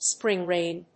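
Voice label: "spring rain", English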